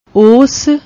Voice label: "oss", Punjabi; ਓਸ

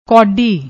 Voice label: "koddi", Punjabi; ਕੌਡੀ

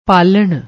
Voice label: "palan", Punjabi

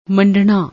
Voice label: "manddnna", Punjabi

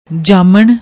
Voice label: "jaaman", Punjabi

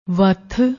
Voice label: "watth", Punjabi; ਵੱਥ

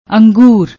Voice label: "angur", Punjabi; ਅੰਗੂਰ

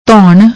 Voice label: "dhonn", Punjabi; ਧੌਣ

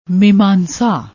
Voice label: "meemansa", Punjabi

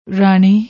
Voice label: "raani", Punjabi

